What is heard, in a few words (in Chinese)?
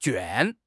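"卷", Chinese; HSK6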